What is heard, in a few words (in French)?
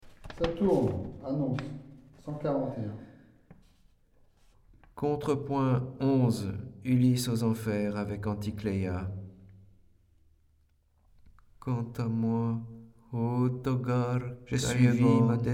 conversion français et grec; Le retour d'Ulysse